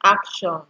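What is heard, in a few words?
"action", Nigerian Pidgin, female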